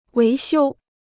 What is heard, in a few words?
"维修", Chinese